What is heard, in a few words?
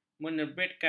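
"menerbitkan", Malay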